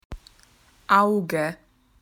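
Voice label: "eye", Australian English; PictureDictionary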